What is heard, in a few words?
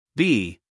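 "b", American English guy; sounds-of-american-english; everyone-can-use-english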